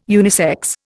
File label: "unisex", Indian